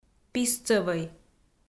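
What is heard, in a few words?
"писцӧвӧй", Komi, female; audio dict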